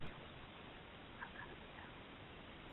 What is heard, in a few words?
EVP Cleaned CHURCH; Beyond belief